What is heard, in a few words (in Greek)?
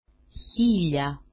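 "hilia", Greek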